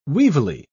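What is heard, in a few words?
"weevilly", British English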